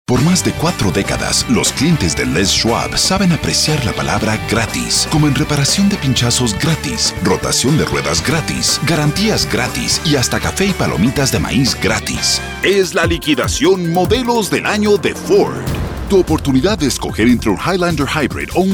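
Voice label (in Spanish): Comercial, español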